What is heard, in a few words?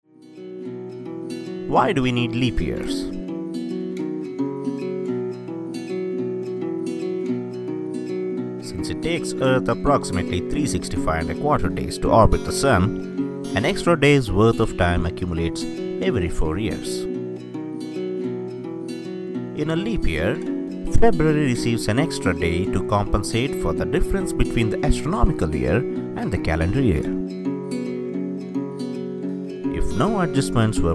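Explainer English, Neutral English male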